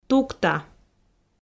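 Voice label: "тукта", Komi, female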